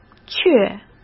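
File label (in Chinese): "却", Mandarin Chinese; hsk; chinese-flashcards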